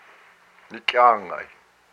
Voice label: "your arm", hupa